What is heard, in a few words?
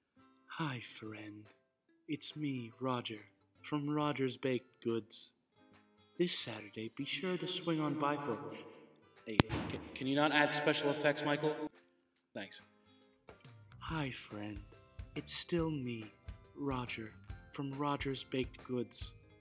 bread sale radio commercial